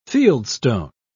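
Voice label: "fieldstone", English, British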